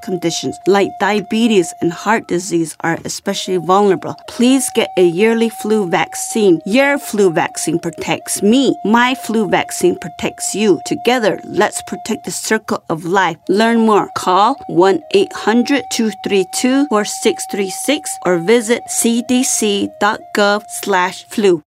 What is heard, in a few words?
serious protection navajo female, radio psas; flu; native-and-alaskan-psas